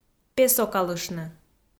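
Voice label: "песокалыштны", Komi, female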